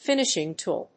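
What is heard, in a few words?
"Finishing tool", English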